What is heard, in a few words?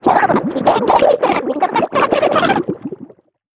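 victory, Japanese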